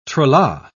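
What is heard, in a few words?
"tra-la", British English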